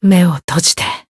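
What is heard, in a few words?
Voice finish, Japanese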